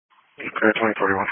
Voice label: Police Patrol, Dispatch